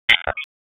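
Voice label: Police Northwest District Tac, radio; Raleigh; Wake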